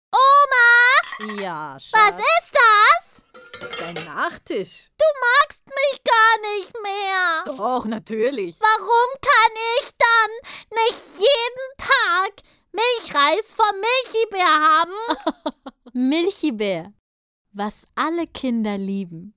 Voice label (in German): Werbung Kind